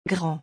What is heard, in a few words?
"grand", French